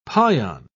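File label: "pion", British English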